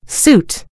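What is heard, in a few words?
"suit", American English; gcompris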